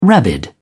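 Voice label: "rabid", English, uk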